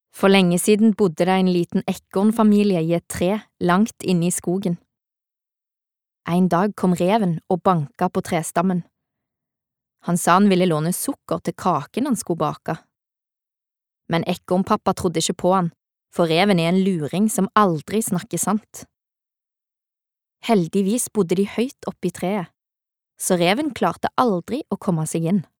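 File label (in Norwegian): Norwegian forteller stavanger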